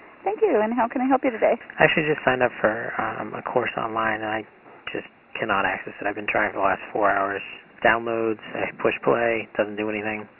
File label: Us English Narrowband Sample; watson stt; bluemix